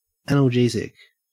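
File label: "analgesic", Australian English; pronunciations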